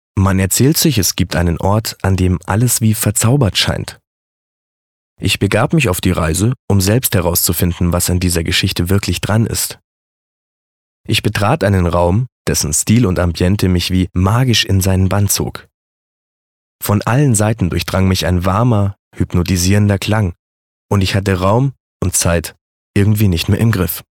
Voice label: Schauspiel Neutral

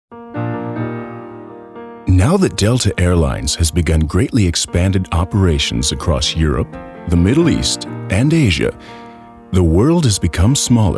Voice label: Eng US Werbung Freundlich

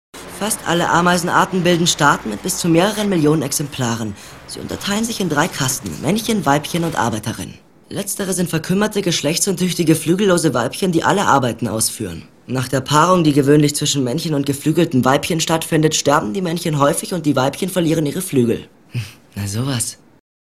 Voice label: Schauspiel, Neutral

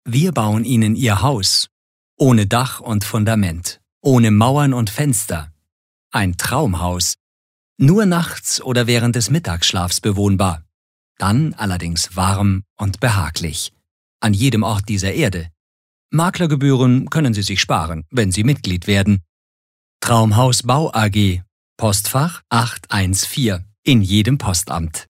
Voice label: Werbung Freundlich

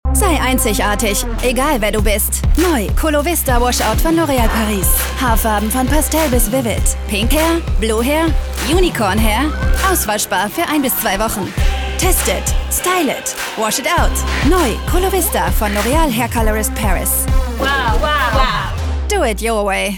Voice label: Lebendig

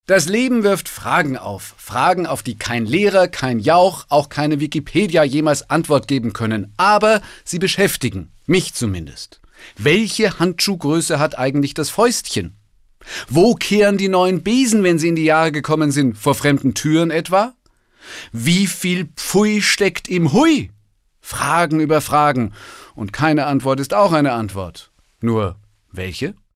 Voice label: Schauspiel Moderativ

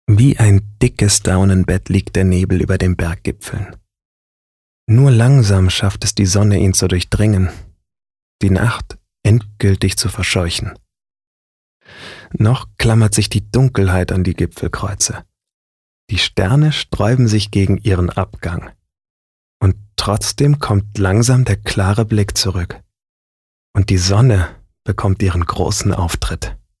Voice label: Schauspiel Sanft

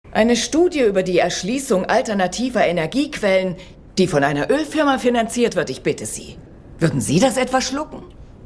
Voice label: Dynamisch; Fremdtake

